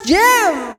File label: "jam", shouts; Best Service; Hyper Dance